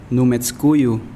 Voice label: Nawat palabras pierna; pipil

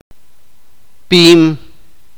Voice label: "grueso de objetos planos", sipakapense

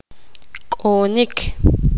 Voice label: poqomam jugar

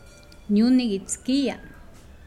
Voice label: Nawat palabras cazar; pipil

